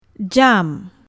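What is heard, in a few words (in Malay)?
"jam", Malay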